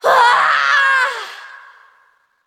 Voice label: Female Valkyrie Death

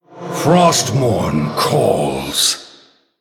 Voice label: Male Human Thinking; Uther Deathknight

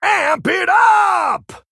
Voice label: Male Tauren Hero Power

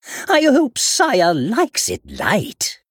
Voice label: Male Steward Play

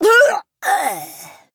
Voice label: Female Dwarf Death

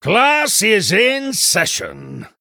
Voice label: Male Human New Game

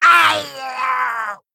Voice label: Male Kobold Death

